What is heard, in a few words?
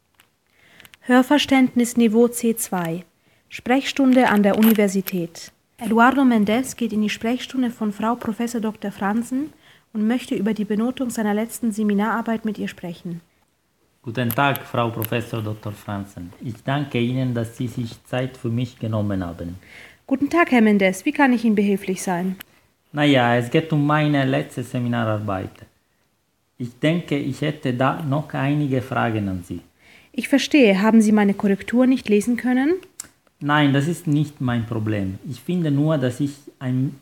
german dialog level c2